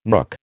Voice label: "nook", American

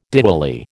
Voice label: "Diwali", American

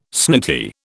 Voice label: "snooty", British

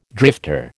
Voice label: "drifter", American

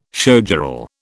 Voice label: "showgirl", British

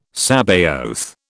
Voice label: "Sabaoth", British

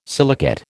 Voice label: "silicate", American

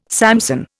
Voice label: "Samson", Indian

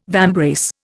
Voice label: "vambrace", Indian

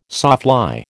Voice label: "sawfly", American

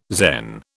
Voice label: "Zen", British

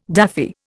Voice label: "daffy", Indian

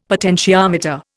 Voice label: "potentiometer", Indian; Dictionary

